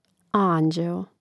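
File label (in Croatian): "anđeo", Croatian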